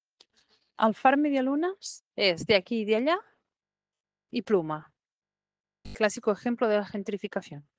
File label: Voice message